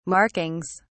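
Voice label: "markings", British English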